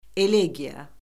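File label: "elegiya", Azerbaijani; Orfoqrafiya Lüğəti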